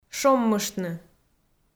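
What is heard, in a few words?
"шоммыштны", Komi, female; kpv audio dict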